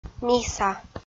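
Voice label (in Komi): "миса", Komi, female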